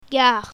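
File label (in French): "gare", French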